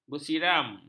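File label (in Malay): "bersiram", Malay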